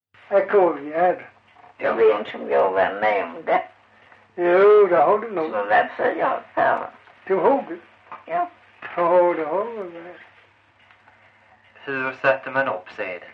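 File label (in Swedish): Farstorp Skåne, dialekter; Folkminnen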